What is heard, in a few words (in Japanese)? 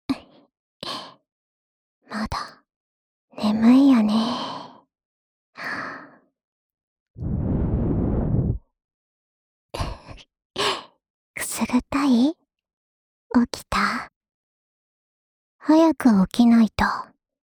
目覚ましボイス; 春日野穹